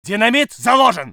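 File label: voice soviet infantry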